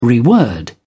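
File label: "reword", British English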